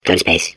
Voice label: babble; portal2